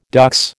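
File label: "dux", American English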